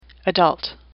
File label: "adult", English; AWL